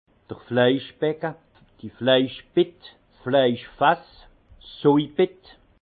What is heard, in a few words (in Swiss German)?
"fleischbecke", alsace; vin; cuve à salaison